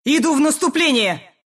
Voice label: attack, ru; dota2

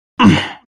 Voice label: anger; Dota 2; phantom assassin

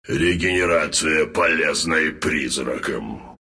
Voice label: regen, ru; Wraith

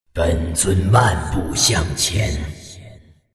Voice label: move, zh; Dota 2

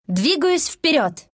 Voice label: move, ru; dota2

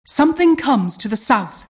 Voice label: announcer generic alert

